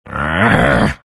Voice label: anger; dota2; lone druid